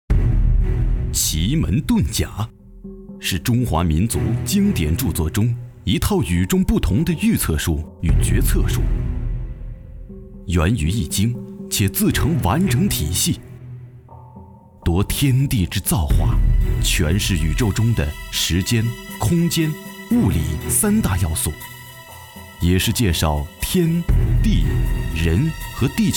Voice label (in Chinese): 沉稳、讲述, 男配音, 青年（18-35）, 普通话, 纪录片配音; 奇门遁甲